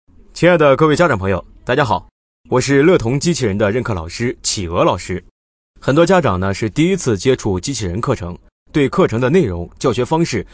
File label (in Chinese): 男66号课件 稳重讲解